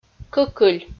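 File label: "кӧкыль", Komi-Zyrian, female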